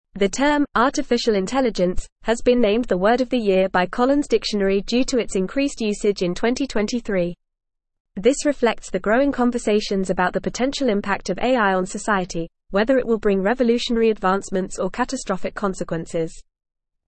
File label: English Newsroom Advanced FAST Reading; AI Named Collins Dictionary Word of the Year 2023